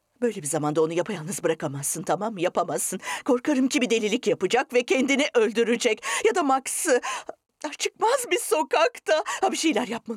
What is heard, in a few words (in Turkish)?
yetiskin ornek